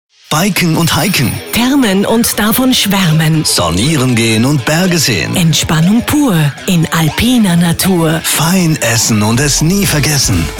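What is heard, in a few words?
German Austrian, female; showreel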